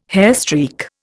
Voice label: "hairstreak", Indian

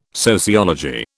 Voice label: "sociology", British

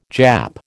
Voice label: "Jap", American

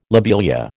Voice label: "lobelia", American

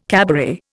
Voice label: "cabaret", Indian

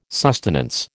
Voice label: "sustenance", American

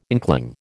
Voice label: "inkling", American

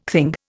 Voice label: "thing", Indian

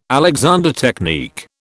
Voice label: "Alexander technique", British

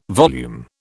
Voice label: "volume", British

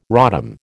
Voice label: "rodham", American

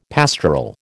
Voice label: "pastoral", American

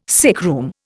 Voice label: "sickroom", Indian

